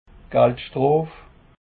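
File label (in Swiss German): "geldschtrof", Alsatian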